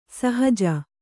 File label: "ಸಹಜ", Kannada